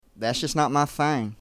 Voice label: Southern American English, That’s just not my thing.